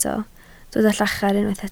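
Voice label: "llachar" Welsh, spoken word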